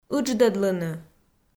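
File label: "ыдждӧдлыны", Komi, female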